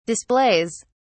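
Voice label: "displays", British English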